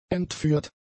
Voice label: "entführt", German; adjectives